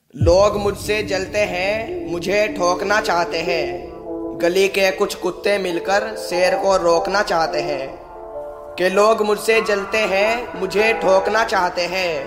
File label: Bad Boy Attitude Shayari Ringtone